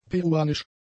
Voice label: "peruanisch", German; adjectives

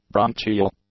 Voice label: "bronchial", English; adjectives

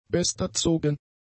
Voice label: "besterzogen", German; adjectives

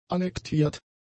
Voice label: "annektiert", German; adjectives

